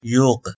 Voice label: "yog", Persian